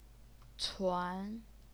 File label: "cuan2", Chinese pinyin